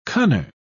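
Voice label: "cunner", British English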